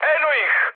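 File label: Serbian enemy detected mood high; War Thunder; speech srb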